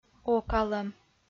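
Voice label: "окалӧм", Komi, female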